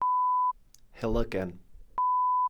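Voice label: "helygen", Welsh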